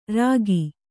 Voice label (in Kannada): "ರೇಗು", Kannada